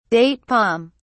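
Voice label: "date palm", English, British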